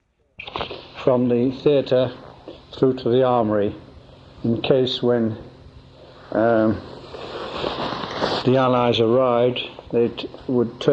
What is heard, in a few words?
cassette noise reduced